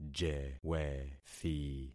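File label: "jewethi", concatenated syllables; Affixing experiment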